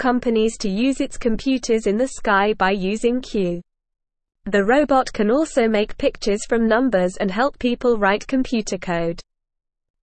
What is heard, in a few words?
English Beginner NORMAL Reading; English Newsroom; Amazons Talking Robot Q Helps People at Work